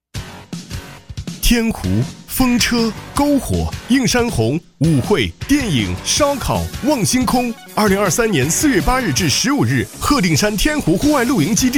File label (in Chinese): 男, TVC广告, 活动预告片花